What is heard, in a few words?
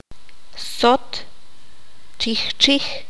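"Sonaja chinchín", Q'anjob'al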